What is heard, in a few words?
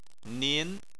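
"nin" second tone, Chinese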